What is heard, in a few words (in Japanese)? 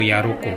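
"yaroko", 南山形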